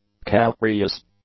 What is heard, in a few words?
"calcareous", English; adjectives